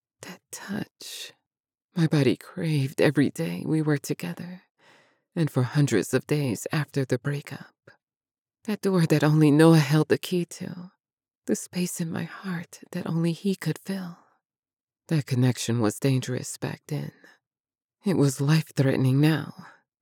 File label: 1st person narration - Romance